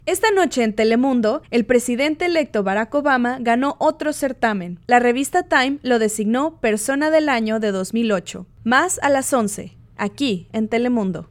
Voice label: female spanish mexican neutral spanish latam tv promo; telemundo